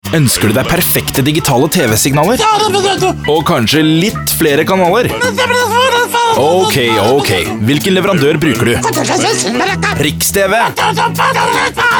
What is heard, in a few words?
VO NORWEGIAN